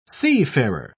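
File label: "seafarer", English, British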